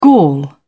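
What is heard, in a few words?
"gall", British English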